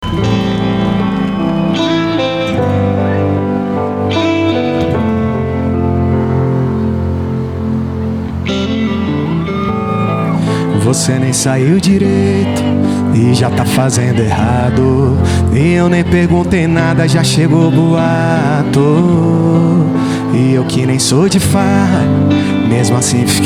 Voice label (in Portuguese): locutor a voz magnifica